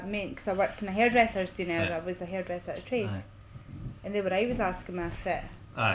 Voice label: Northern and Insular Scots, Mid-Northern A - Boddam, near Peterhead